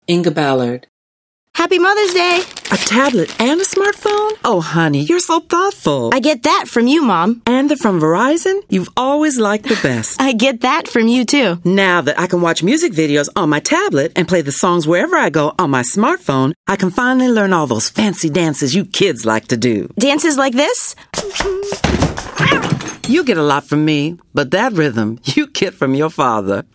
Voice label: commercial, women